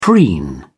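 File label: "preen", English, British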